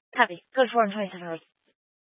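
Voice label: Incident Tac 3, Scanner audio recording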